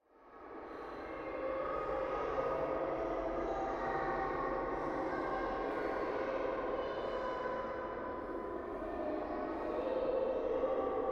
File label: Children Talking In Heavy Reverb Eerie Drone; Hollywood Edge; sound designer's toolkit v2